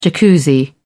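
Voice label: "jacuzzi", British English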